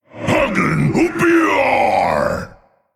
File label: Male Ymirjar Play